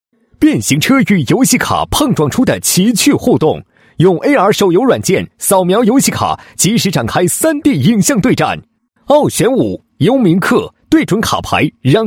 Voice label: 男 宣传广告, AR手游软件宣传

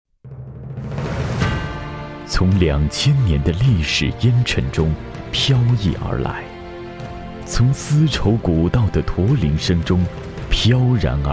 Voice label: 男 纪录片 沉稳大气

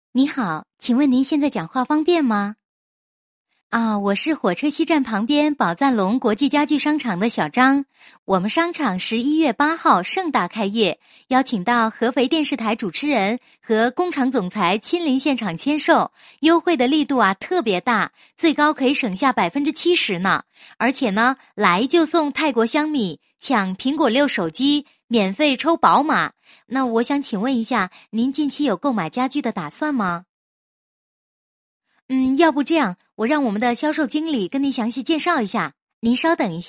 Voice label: 女 彩铃 国际家居商场彩铃, 国语女声配音; 宝赞隆国际家居商场

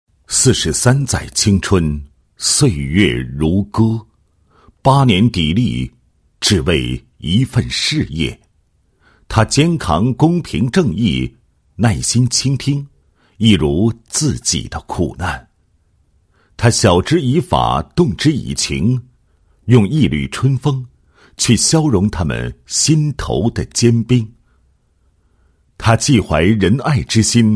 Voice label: 男 人物; 钟所长个人微电影配音词

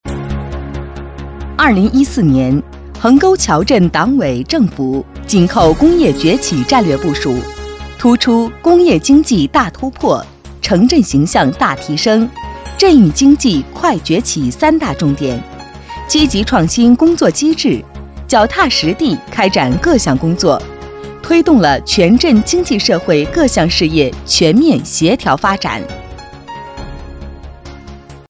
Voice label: 女 专题 政府企业汇报

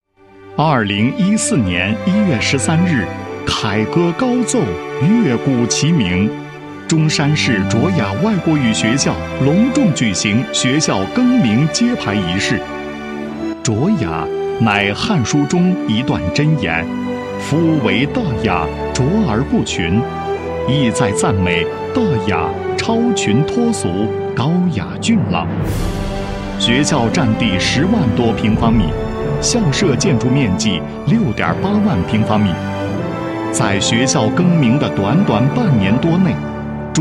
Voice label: 男 学校宣传片, 外国语学校宣传介绍; 卓雅

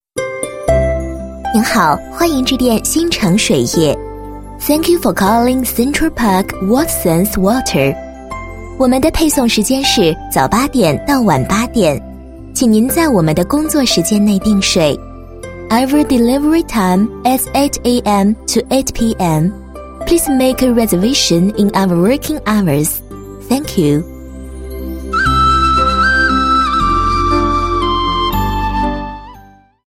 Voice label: 女19 彩铃 中英 正统, 国语女声配音; 新城水业彩铃

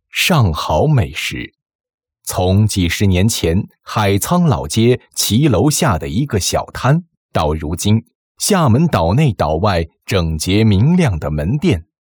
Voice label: 广告, 美食; 上好美食

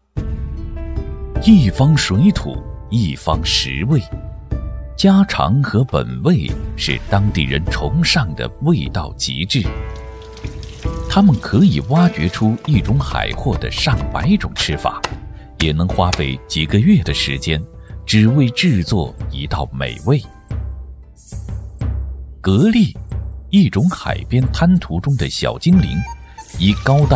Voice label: 男 纪录片 美食专题片 自然讲述

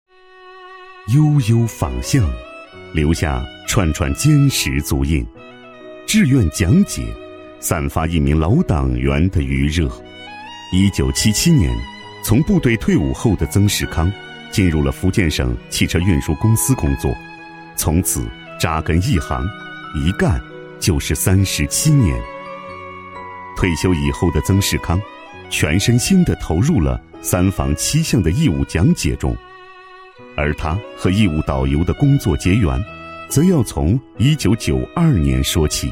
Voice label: 男 人物介绍; 三坊七巷的义务讲解

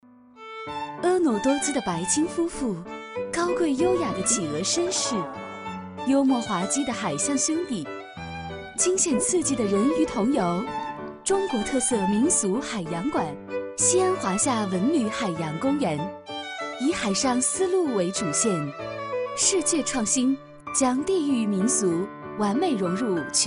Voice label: 女 宣传片 旅游风光; 海洋公园

